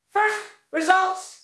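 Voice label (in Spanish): Voice Acting es F, Spanish, female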